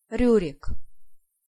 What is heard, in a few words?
"Riurik", Pronunciation